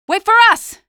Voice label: squad reinforce group, female; Half-Life 2

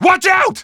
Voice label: watch out, male; Half-Life 2

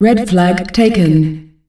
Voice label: Red Flag Taken, female announcer; Unreal Tournament; ut2004

